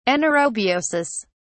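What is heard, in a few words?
"anaerobiosis", British English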